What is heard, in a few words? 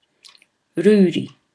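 "ruri", Māori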